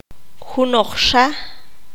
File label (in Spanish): "otro", Q'anjob'al